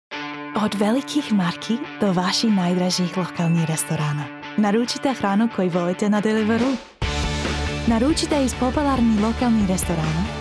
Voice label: female Croatian smooth, commercial; 20-35